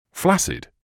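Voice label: "flaccid", British English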